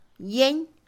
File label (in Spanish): Mixe, Mujer; largo; INALI